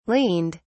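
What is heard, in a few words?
"leaned", English, British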